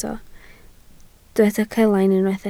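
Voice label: "celain" Welsh, spoken word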